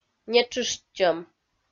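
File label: "нетшыштчӧм", Komi, female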